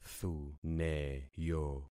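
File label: "thuneyo", concatenated syllables; Affixing experiment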